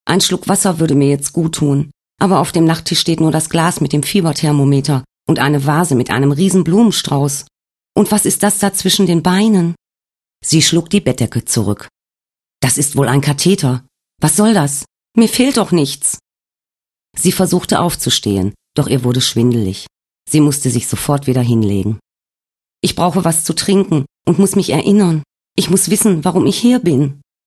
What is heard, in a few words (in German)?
Hoerbuch; Svenjas Tagebuch